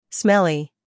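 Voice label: "scandal", American; smelly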